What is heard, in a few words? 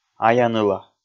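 "ая-ныла" Komi, male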